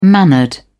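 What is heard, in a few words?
"mannered", British English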